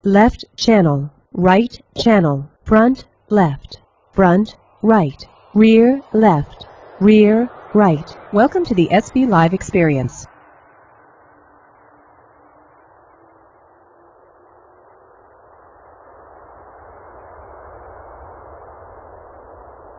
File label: female plane noise